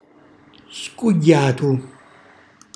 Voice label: "scugghiatu", Calabrese; cucina calabrese